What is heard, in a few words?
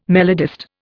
"melodist", Indian